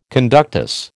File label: "conductus", American